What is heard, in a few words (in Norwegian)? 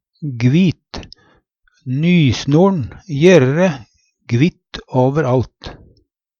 gvit, Numedalsmål; Nysno'n gjere dæ gvitt åver alt.; Adjektiv